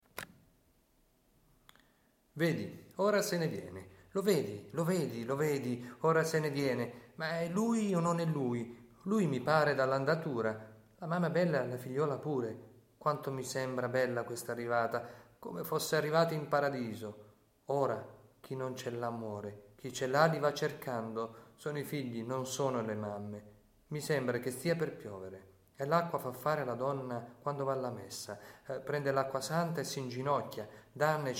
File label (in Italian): recitato Tarantella con stramulettë Rignano; RIGNANO GARGANICO; Testo in dialetto rignanese